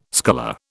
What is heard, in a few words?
"sculler", British